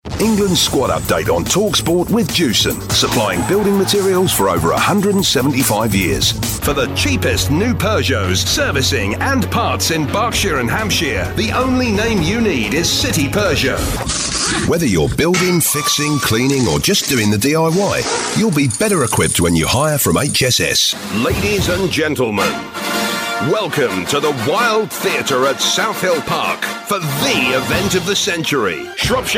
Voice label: hard sell commercial